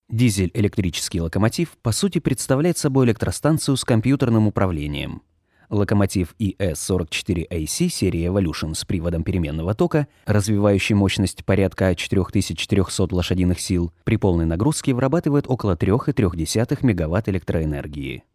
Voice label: Russian Native

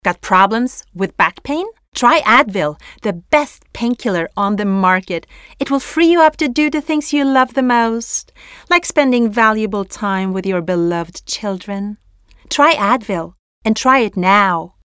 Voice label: English Foreign English Accent

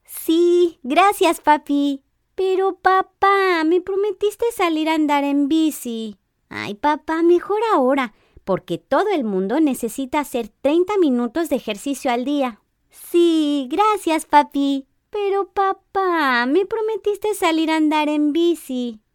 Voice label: Spanish Latin

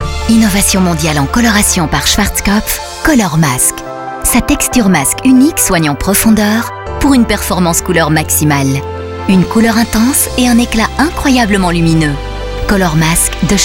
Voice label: French France

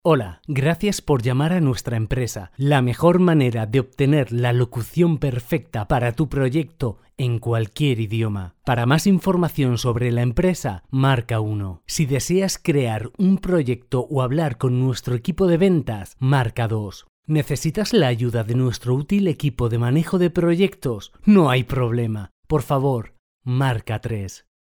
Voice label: Spanish Spain

